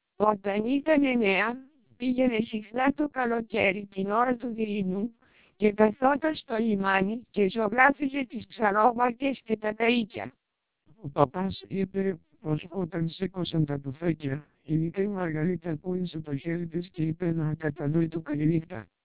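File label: TWELP 400 bps Vocoder, Greek speech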